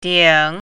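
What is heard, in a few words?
"ding3", Mandarin Chinese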